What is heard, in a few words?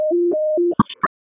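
Police Spec Ops Tac, radio; Raleigh; wake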